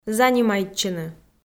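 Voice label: "занимайтчыны", Komi, female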